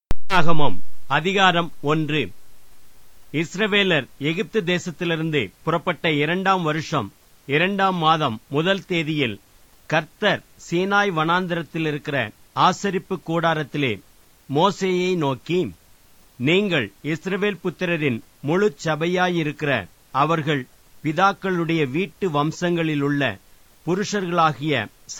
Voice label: tamil